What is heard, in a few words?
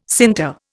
"sinter", Indian